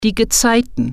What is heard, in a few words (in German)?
"tide", German